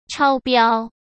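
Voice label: "超标", Mandarin Chinese; chinese flashcards; hsk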